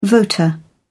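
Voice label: "voter", British English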